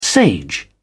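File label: "sage", British English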